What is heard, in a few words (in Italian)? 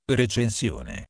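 "recensione", italiano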